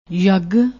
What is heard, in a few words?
"yagg", Punjabi